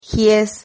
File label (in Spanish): "gies", Silabas